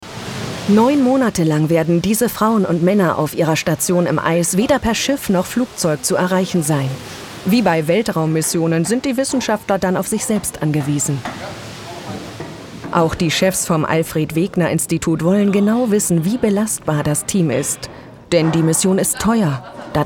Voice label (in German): Doku; NDR; Typisch; Feuerwehrmann